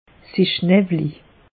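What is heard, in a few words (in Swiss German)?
"nawwlig", Alsatian; meteo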